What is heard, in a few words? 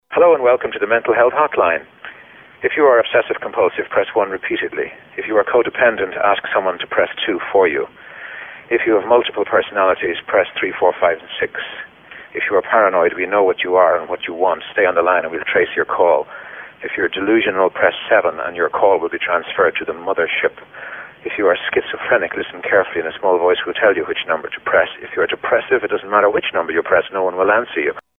Mental Health Phone Menu; Mental Health Hotline Phone Menu; WZBC imaging